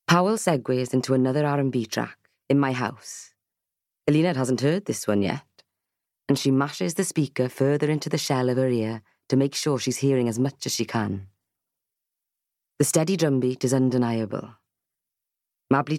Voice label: Audiobook

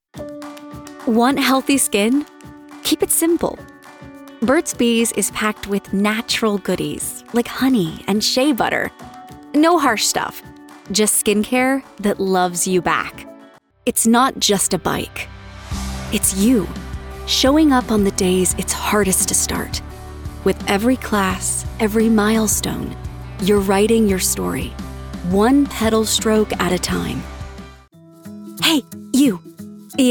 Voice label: Commercial Reel

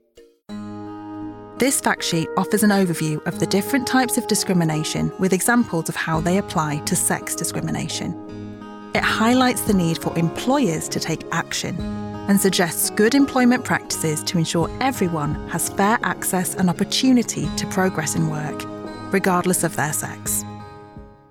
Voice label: Corporate, regional accents

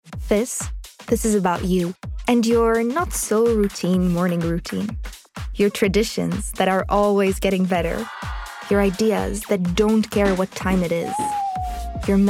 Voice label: Commercial English

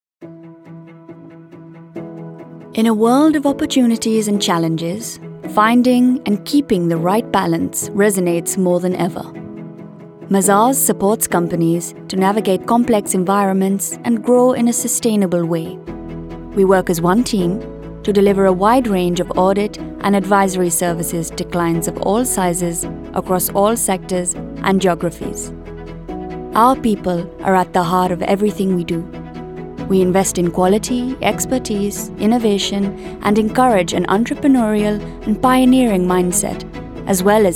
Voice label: CORPORATE

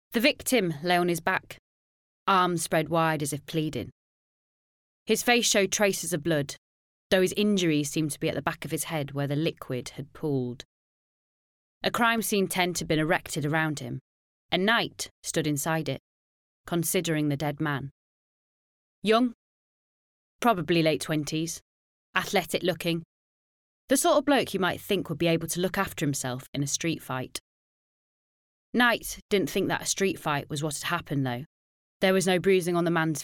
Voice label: Audio Book

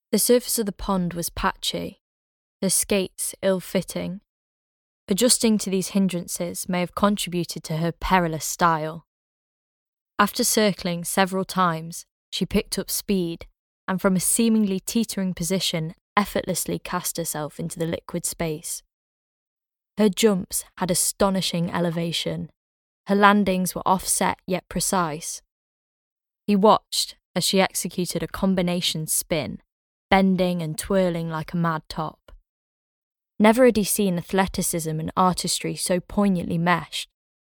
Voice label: audiobook

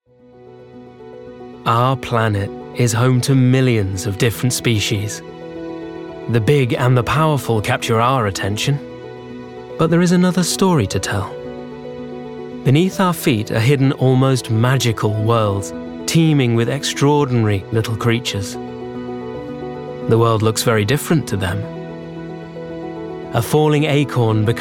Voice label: Documentary Narration

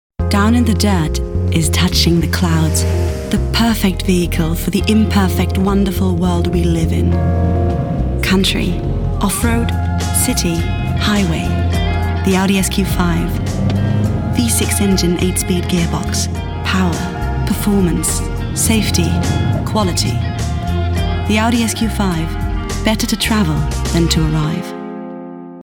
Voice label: English

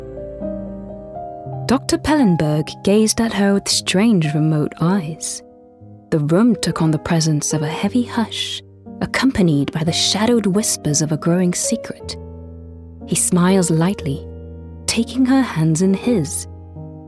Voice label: English

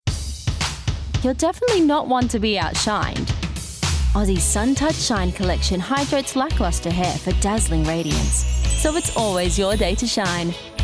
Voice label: Dark Commercials

